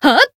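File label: Voice attack, Japanese; felmina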